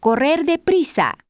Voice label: spanish run fast; samsung YP-U5; Audio User Interface